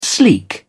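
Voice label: "sleek", British English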